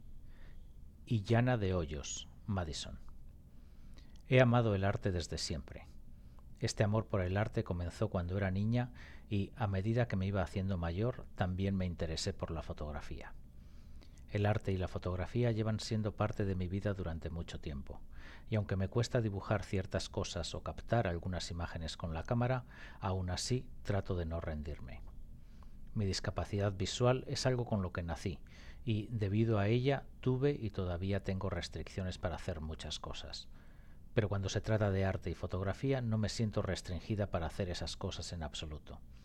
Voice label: Spanish; 2025 gallery night artist statements